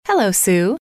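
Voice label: "hello sue", female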